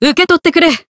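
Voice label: Voice heal, Japanese; buria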